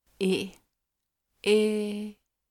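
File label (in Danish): "æ", Danish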